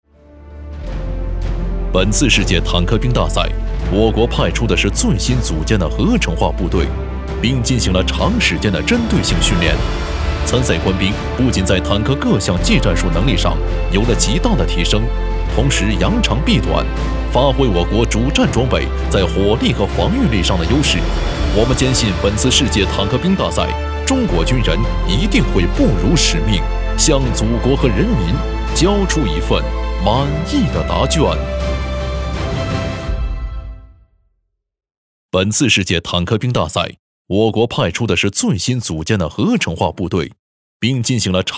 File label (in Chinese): 男, 解说专题; 坦克兵大赛